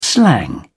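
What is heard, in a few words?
"slang", British English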